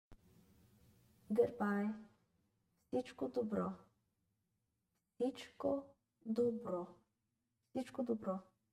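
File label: "Good bye", Bulgarian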